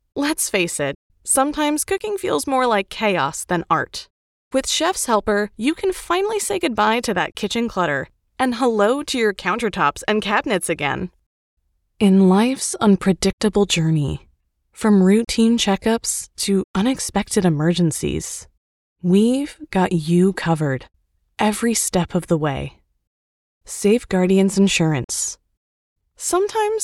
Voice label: Commercial